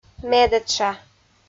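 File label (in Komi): "медэтша", Komi, female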